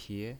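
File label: "pie" second tone, pinyin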